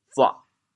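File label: "buah4", Teochew, chaoyang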